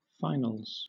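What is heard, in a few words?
"finals", British English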